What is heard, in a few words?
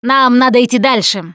Move On, Survivor Voice; russian; Producer